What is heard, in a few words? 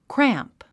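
"cramp", English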